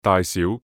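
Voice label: "大小", Cantonese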